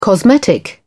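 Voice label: "cosmetic", British English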